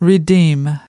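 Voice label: "redeem", US English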